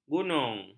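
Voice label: "gunung", Malay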